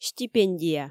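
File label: "стипендия", Komi, female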